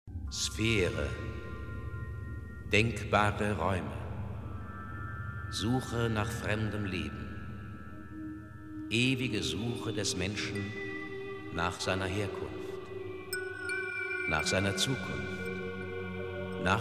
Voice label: Poem Voice Bit